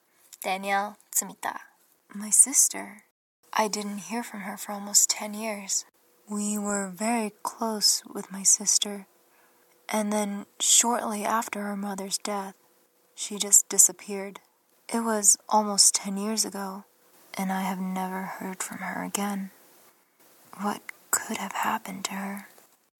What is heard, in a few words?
story